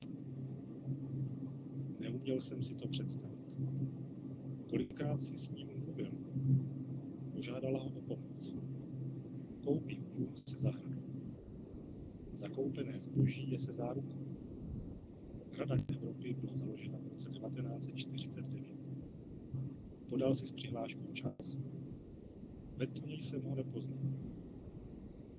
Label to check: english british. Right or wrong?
Wrong